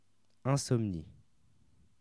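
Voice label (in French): "insomnie", French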